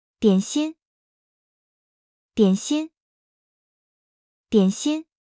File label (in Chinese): "點心", Mandarin